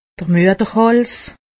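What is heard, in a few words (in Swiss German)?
"mutterhals", Haut Rhin; medecine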